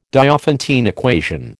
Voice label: "Diophantine equation", American